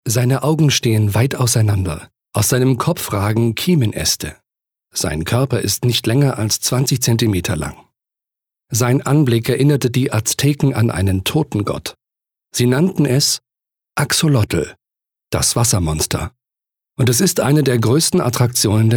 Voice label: Dokumentarischer Text; LOFT VOICES

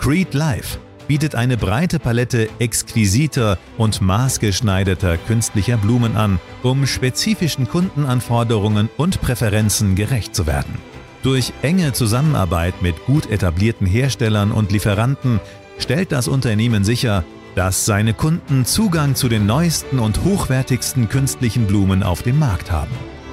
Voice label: Imagefilm, Off, German; Kunstblumen

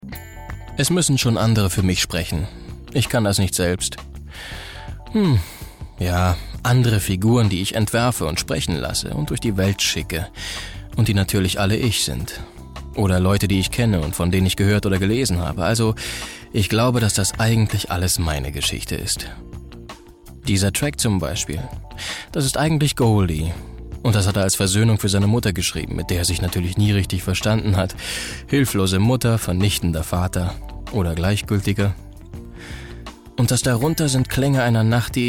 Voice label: erzaehlung

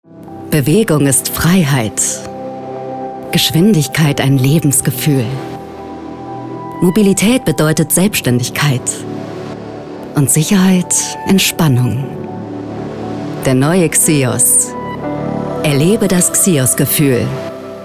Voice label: Werbung; Xios

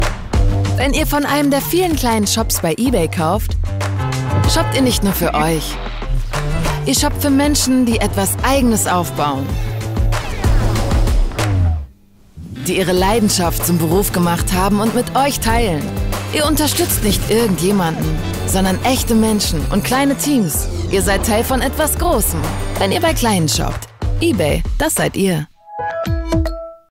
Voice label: Werbung kleine Shops

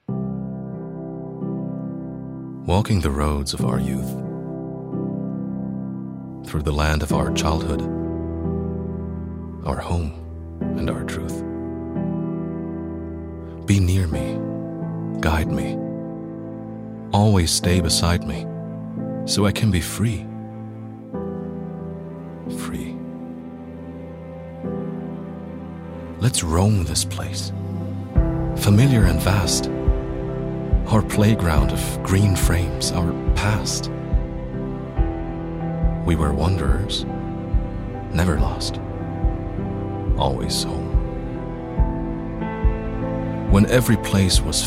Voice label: Englisch Monolog; LOFT VOICES

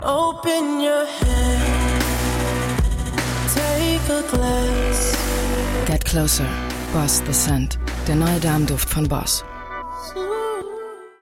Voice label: tvspot; hugo boss; the scent

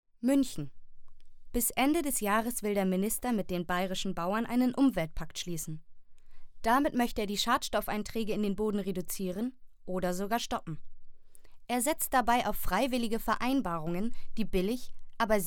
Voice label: Nachrichten

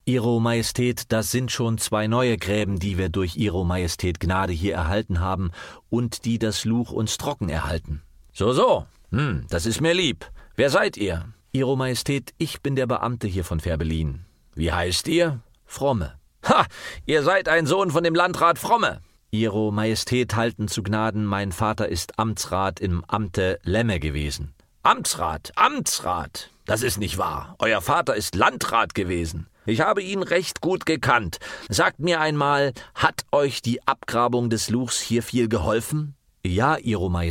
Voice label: Dialog

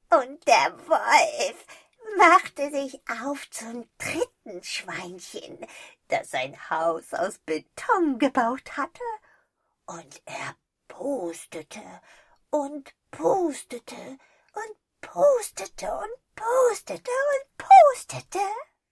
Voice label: Trickstimme

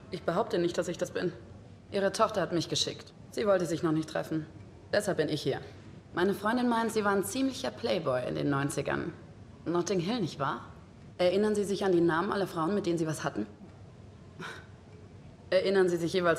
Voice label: Sync angriffslustig ueberlegen

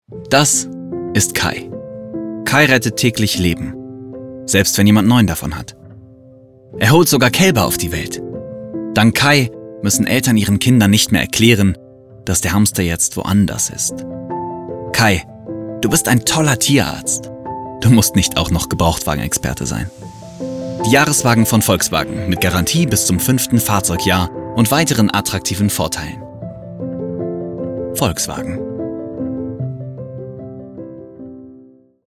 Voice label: Sprachdemos; VW Tierarzt; LOFT VOICES

